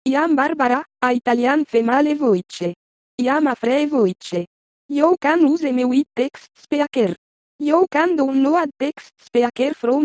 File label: italian female